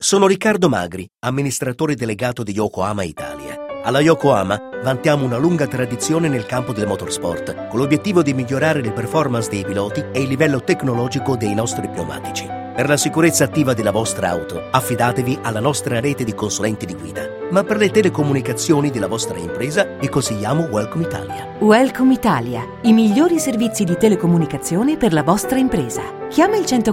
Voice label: Radio Spot; Yokohama